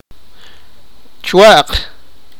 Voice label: sipakapense; tomorrow